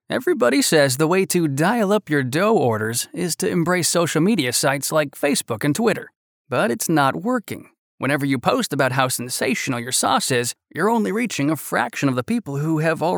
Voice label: explainer

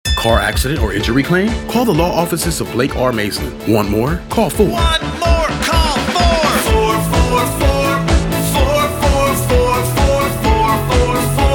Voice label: radio

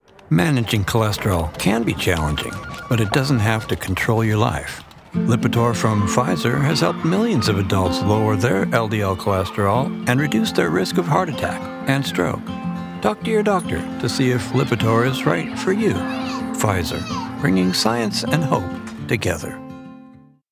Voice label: Radio Spot; Lipitor

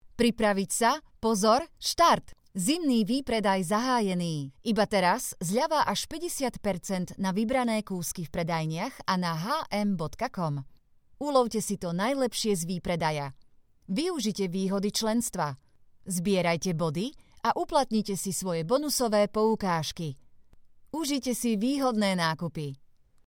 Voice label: INSTORE AD

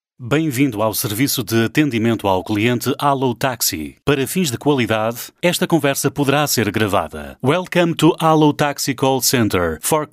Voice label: Telephone Trilingue Portuguese English Spanish

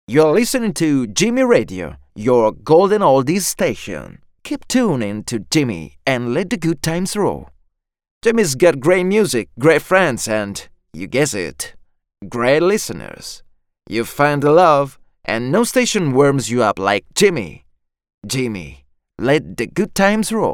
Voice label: english VO Italian Accent

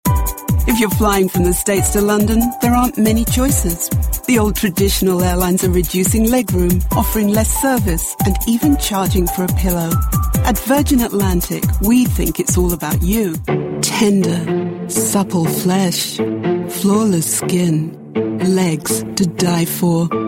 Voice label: BRITISH COMMERCIALS

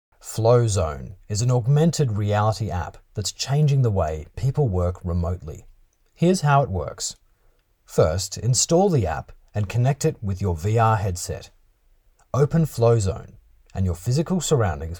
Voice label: Explainer video

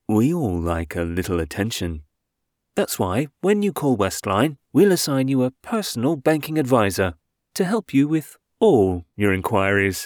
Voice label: UK; Westline

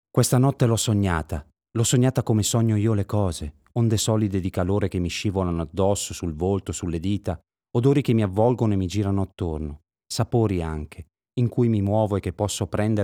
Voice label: ITALIAN MALE AUDIOBOOK; ALMOST BLUE

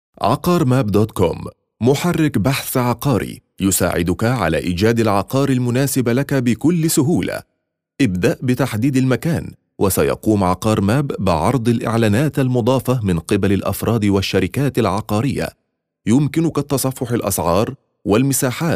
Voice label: male Arabic commercial ad

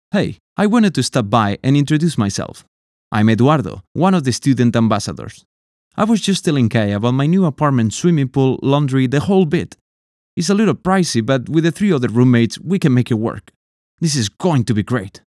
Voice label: eModule Spanish accent

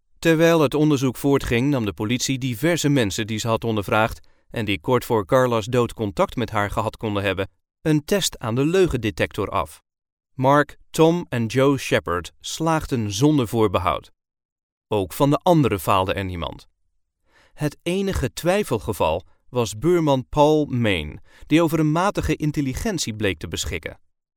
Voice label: Audiobook DUTCH non fiction

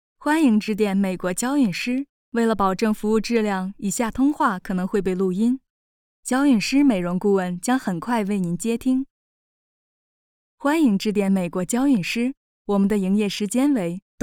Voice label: chinese voiceover; CLARINS

